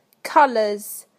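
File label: "colours", British English; PictureDictionary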